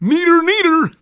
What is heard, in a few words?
clown taunt; Wii